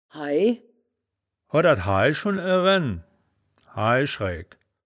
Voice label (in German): "haai", wittlich